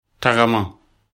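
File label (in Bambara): "tagama", Bambara; action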